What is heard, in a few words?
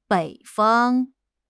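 "bei3 fang1", Mandarin Chinese; HSK3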